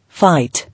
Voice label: "Fight", English

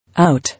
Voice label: "Out", English

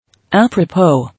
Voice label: "Apropos", English

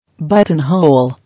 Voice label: "Buttonhole", English

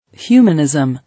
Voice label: "Humanism", English

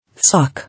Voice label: "Sock", English

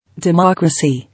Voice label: "Democracy", English

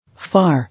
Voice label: "Far", English

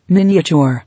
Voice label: "Miniature", English

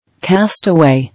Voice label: "Castaway", English

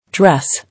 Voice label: "Dress", English

